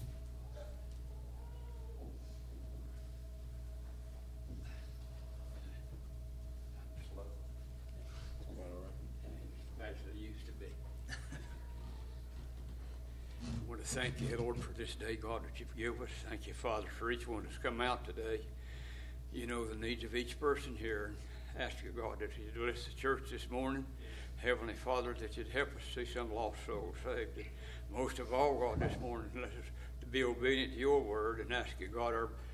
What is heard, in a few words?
Broadcast Prayer